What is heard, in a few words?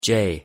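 "j", English; pronunciation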